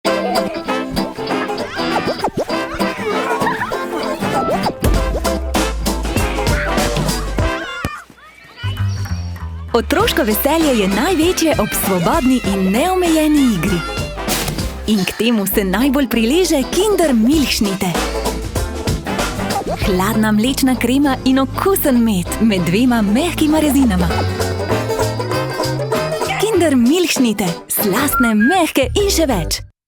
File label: commercial, slovenian